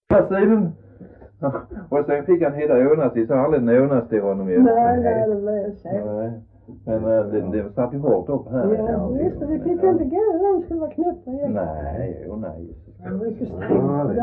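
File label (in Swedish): Röddinge, Swedish dialect; Folkminnen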